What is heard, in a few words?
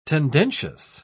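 "tendencious", British English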